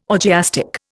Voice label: "orgiastic", Indian